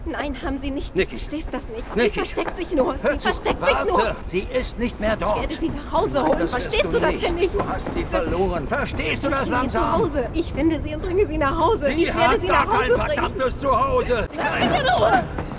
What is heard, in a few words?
angry desperate, German; Synchron - Realfilm - wütend, verzweifelt; Lip-Sync (Synchron)